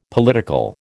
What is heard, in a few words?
"political", American